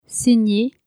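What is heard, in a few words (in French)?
"saigner", French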